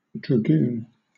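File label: "dragoon", British English; pronunciations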